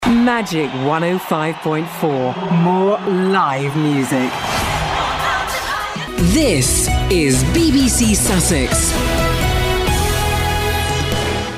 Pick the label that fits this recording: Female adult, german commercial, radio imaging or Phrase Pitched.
radio imaging